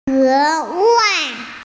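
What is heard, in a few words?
"especially", Mandarin Chinese, female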